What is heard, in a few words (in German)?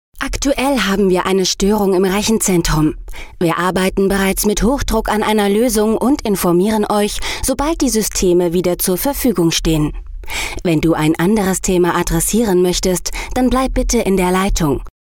frau